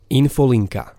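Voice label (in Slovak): "infolinka", Slovak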